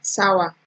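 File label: "sour", Nigerian Pidgin, female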